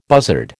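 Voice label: "buzzard", American English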